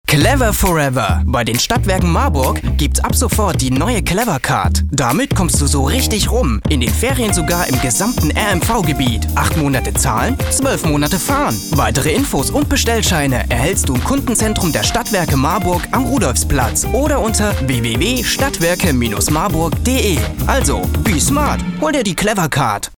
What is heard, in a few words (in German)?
werbung clever